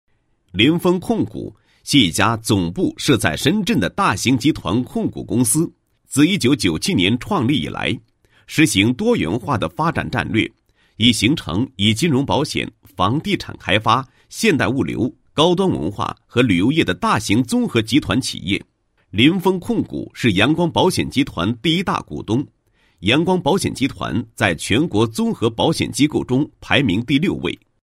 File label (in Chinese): 男 报告; 某公司规划经营发展报告